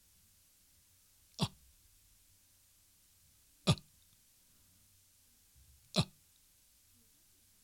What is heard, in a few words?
sokuon a, pronunciation